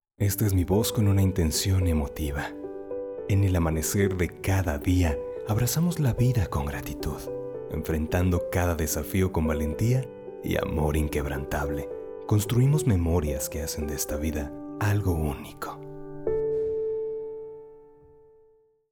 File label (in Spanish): Emotiva